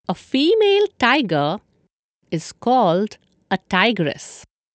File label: Female